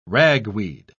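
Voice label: "ragweed", British English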